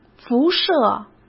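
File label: "辐射", Mandarin Chinese; chinese-flashcards; hsk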